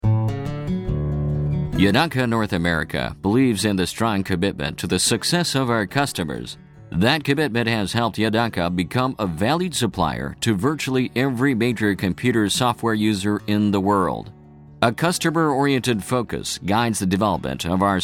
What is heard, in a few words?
Eng CM